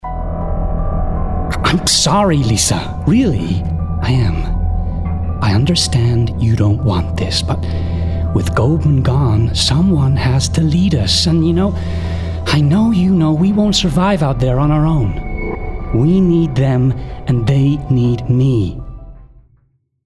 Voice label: Gaming Character Everyman US; Gaming Character – Everyman American